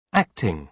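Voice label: "acting", British English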